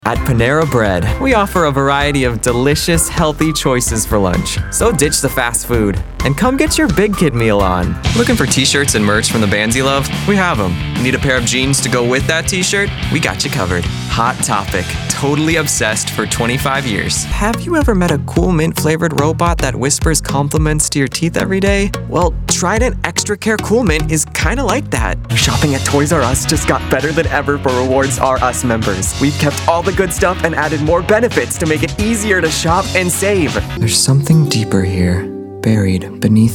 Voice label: Commercial